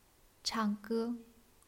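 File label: "chang4ge1", Chinese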